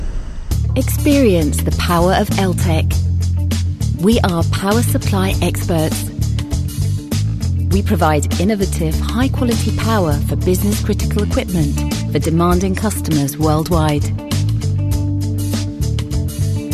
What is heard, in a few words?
english british corporate videos, voice actor; voix off bilingue anglais/turc